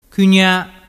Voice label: "cugna", dialettu

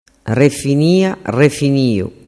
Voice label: "refiniarefiniu", dialettu

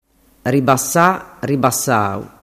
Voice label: "ribasaribasau", dialettu

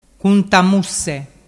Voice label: "cuntamusse", dialettu

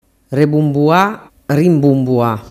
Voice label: "rebumbua rimbumbua", dialettu

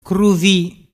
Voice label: "cruvi", dialettu

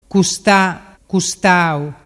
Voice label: "custatau", dialettu

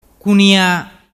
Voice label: "cunia", dialettu

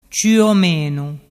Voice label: "ciuomenu", dialettu

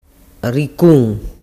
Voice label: "riccun", dialettu

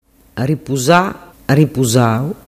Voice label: "ripusasau", dialettu

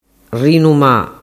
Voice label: "rinuma", dialettu

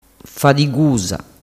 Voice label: "fadigusa", dialettu

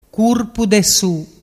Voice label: "curpudesu", dialettu

